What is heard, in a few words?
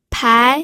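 "pai", Mandarin Chinese, second tone; pinyin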